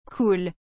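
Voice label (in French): "cool", French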